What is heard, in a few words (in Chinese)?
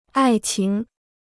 "爱情", Chinese